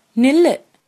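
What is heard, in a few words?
stop, Tamil, female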